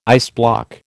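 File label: "iceblock", American English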